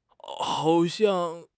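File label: "hao3 xiang4", Mandarin Chinese; HSK4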